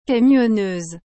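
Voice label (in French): "camionneuse", French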